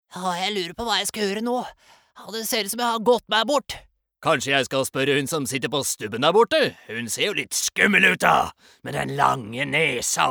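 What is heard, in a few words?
Norwegian dub characters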